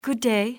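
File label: good day, english female; XBOX; civ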